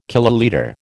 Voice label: "kilolitre", American

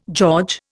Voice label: "George", Indian

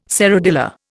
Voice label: "serradilla", Indian

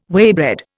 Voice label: "waybread", Indian

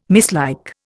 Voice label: "mislike", Indian

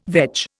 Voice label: "veg", Indian

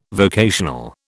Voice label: "vocational", British

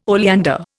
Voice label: "oleander", Indian

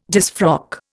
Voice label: "disfrock", Indian

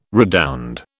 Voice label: "redound", British

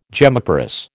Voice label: "gemmiparous", American

